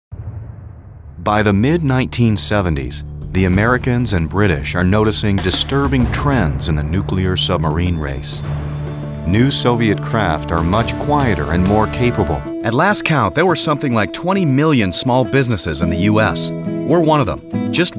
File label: narration